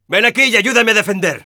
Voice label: help me defend, es